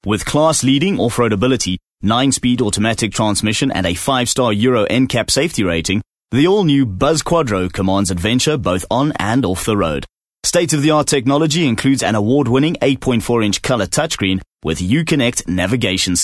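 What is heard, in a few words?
English South African M Corporate; accent of south africa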